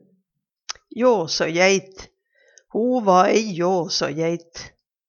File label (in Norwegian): jåsåjeit, Numedalsmål